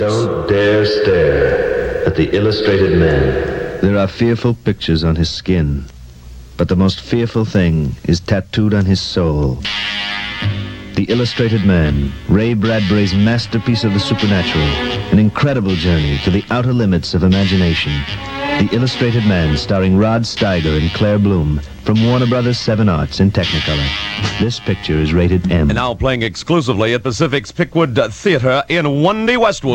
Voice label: Advertisement; The Illustrated Man